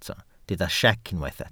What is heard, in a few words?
"siec", Welsh